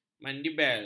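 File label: "mandibel", Malay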